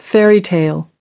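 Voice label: "fairy tale", English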